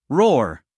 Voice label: "roar", US male; 1000 hours

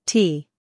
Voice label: "t", US English; sounds of american english; everyone-can-use-english

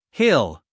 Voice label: "hill", us male

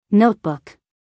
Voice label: "notebook", US female; everyone-can-use-english

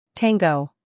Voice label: "Tango", us; english

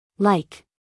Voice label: "like", US English, female

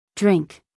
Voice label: "drink", us female; everyone-can-use-english

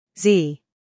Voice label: "z", us; sounds-of-american-english; everyone-can-use-english

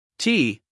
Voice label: "t", us guy

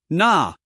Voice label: "gnaw", us male; everyone-can-use-english

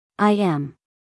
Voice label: "I am", US, female

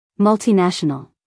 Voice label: "multinational", US female

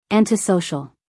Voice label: "antisocial", us female; everyone-can-use-english

